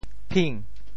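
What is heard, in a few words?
"phing2", Teochew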